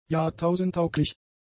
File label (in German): "jahrtausendtauglich", german; adjectives